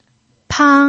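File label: "pang", first tone, Mandarin Chinese pinyin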